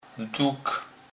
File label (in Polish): "duk", kurpiow